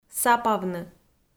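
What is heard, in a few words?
"сапавны", Komi, female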